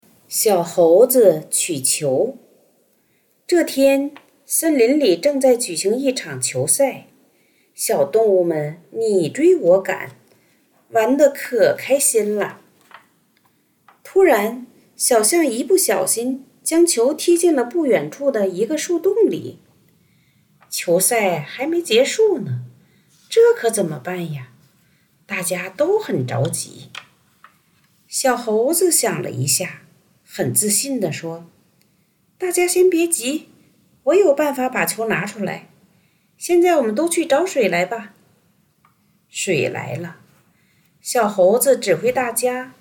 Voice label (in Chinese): 儿童故事; 小猴子取球